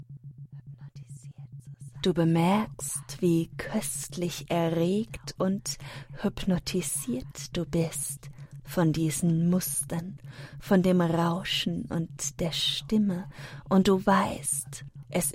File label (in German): Erotica Hypnotica Hörbuch, Erotische Hypnose; Hypnobot Teil 3; Die Mindcontrol Fabrik; OHRgasmus; Eine geile Programmierung. Schleife um Schleife gehst du tiefer in meine Mindcontrol Fabrik